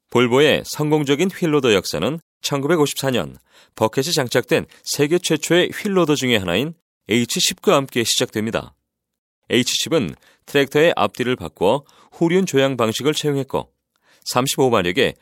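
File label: korean male commercial; voice demos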